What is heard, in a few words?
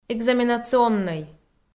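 "экзаменацоннӧй" Komi, female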